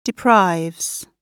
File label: "deprives", gb